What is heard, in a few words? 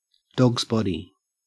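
"dogsbody", Australian English; pronunciations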